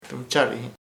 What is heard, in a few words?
"woman's breast pecho de mujer", chamacoco